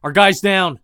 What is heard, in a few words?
friendly down; Escape from Tarkov